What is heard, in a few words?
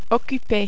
"occuper", French